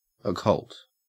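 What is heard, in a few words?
"occult", Australian English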